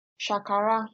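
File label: "shakara", Nigerian Pidgin, female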